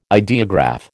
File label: "ideograph", American